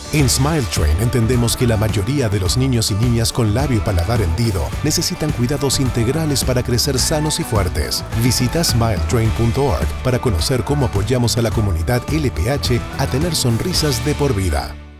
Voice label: RADIO SPANISH; LIFELONG SMILES